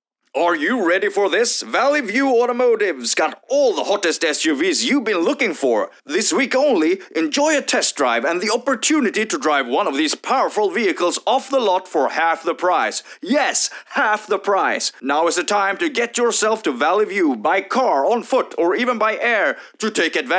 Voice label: english